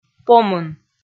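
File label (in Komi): "помын", Komi, female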